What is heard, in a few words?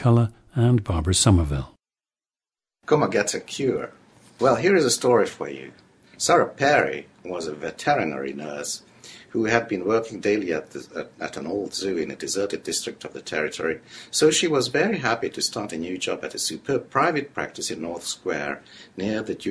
hungary, dialects